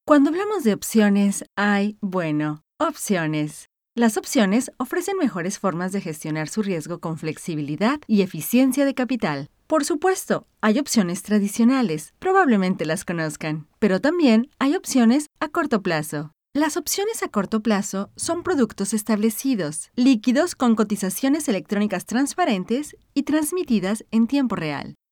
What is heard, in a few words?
Explainer Video